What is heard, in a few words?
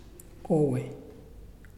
This, Muyuw; Lae Unitech